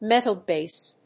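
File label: "metal-base", English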